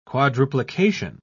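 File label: "quadruplication", English, British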